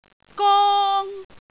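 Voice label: "gong1", Cantonese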